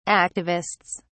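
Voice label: "activists", English, British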